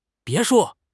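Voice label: "别墅", Chinese; HSK6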